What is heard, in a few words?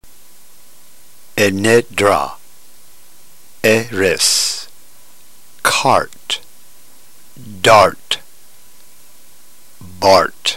drill, ntgreek